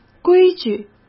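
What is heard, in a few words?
"规矩", Mandarin Chinese; hsk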